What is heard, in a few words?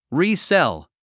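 "resell", English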